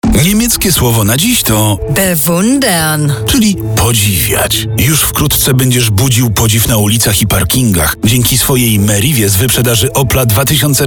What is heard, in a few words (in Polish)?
reklama; meriva bewundern